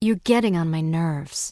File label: Human Female Official NPC Pissed